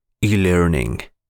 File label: Spanish E-learning